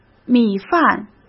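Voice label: "米饭", Mandarin Chinese; chinese flashcards; hsk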